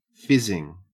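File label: "fizzing", Australian English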